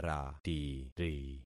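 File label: "ratiri", concatenated syllables; Affixing experiment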